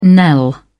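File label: "knell", English, British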